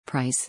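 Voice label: "price", English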